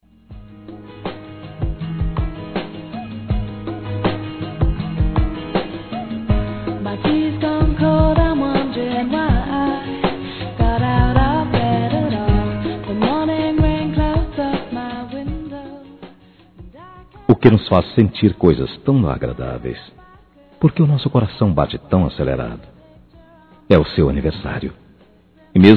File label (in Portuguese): Ex Namorada Masculino; Aniversários; ANIVERSARIO romantico